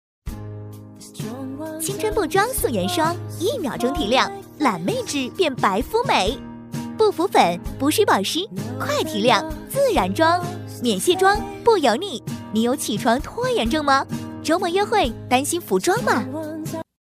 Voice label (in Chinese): 女 广告配音 化妆品介绍 俏皮可爱, 年轻时尚